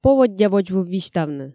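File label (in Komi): поводдя водзвыв висьтавны, Komi, female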